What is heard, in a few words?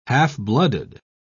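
"half-blooded", British English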